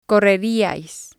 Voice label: "correriais", spanish